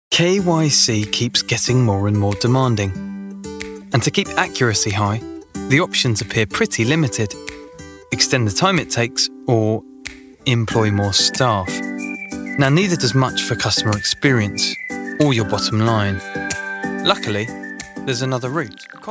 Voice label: english british corporate videos